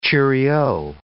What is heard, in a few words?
"cheerio", English